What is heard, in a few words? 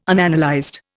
"Unanalyzed", Indian